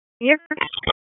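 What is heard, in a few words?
Raleigh Police North District Tactical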